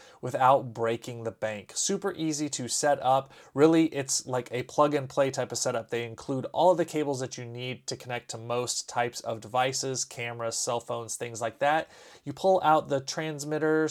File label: Mono, dual wireless lavalier microphone; FiFine M9